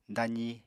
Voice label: "dazi", Apatani